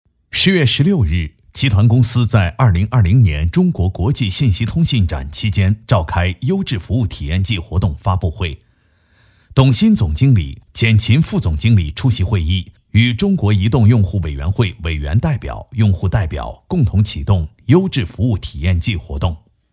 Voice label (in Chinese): 男 新闻类 优质服务新闻